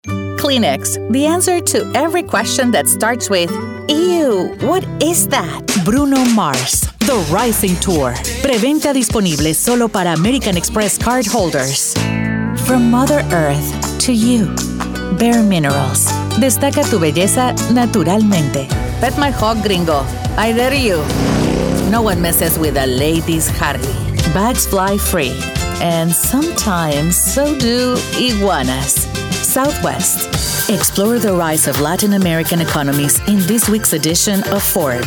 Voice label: commercials English

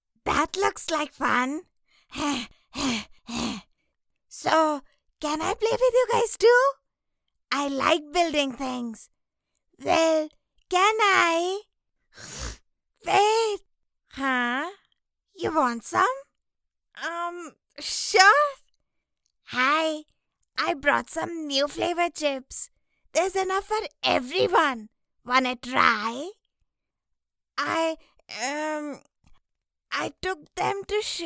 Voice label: Little boys voice in English

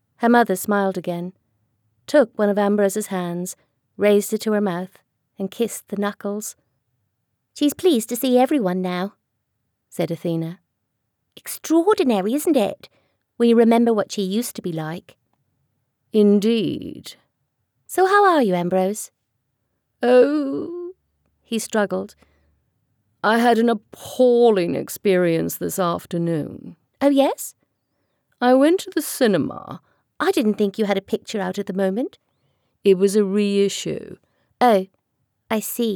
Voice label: Audiobook Sample

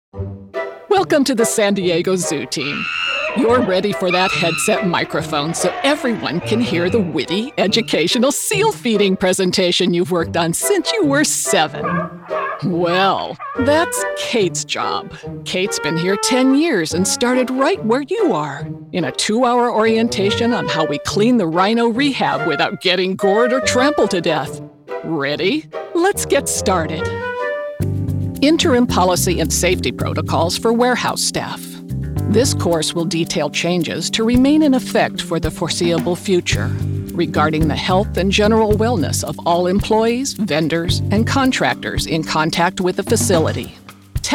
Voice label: E-LEARNING

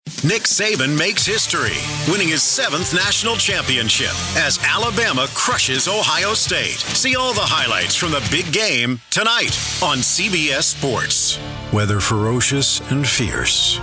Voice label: Promo long

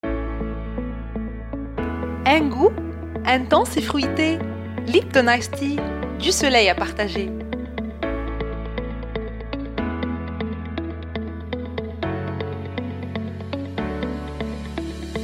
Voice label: French; Lipton

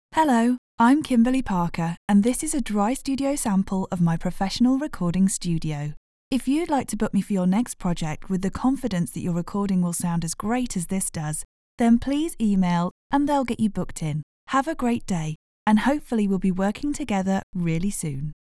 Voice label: Dry Studio Sample

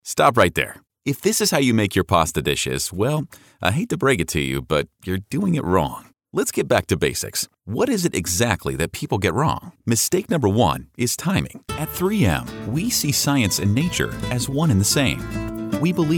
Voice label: explainer

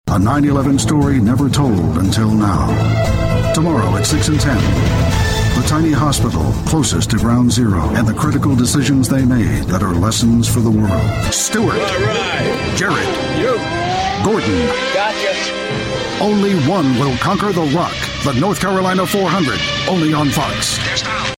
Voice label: Promos Mix

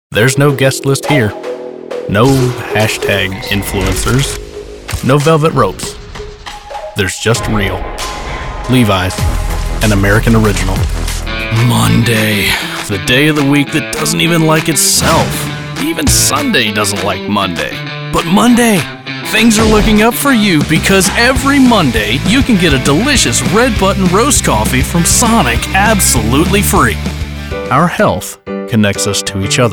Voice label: English Commercial

